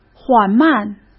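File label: "缓慢", Mandarin Chinese; chinese-flashcards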